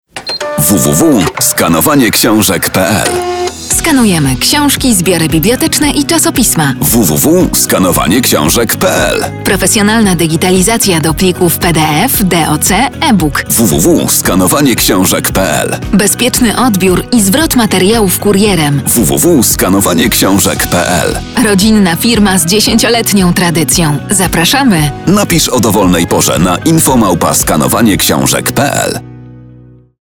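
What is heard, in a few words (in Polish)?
reklama radiowa; Skanowanie ksiazek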